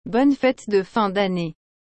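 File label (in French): "Bonne fêtes de fin dannée", French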